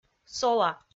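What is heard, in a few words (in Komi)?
"сола", Komi, female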